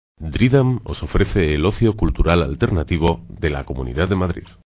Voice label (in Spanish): Indicativo voz chico intermedio